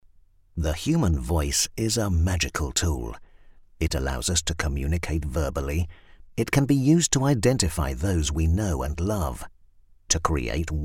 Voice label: Dry Studio Sample